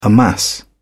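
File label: "amass", English, British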